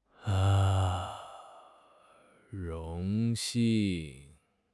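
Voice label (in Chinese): "荣幸", Chinese; HSK6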